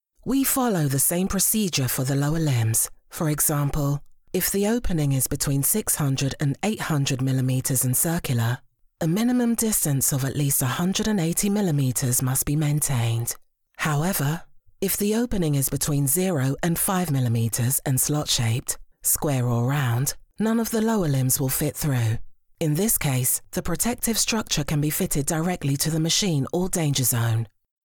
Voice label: E-Learning Dry